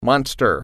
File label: "monster", English